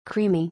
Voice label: "Creamy", English; color-in-english

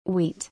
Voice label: "Wheat", English

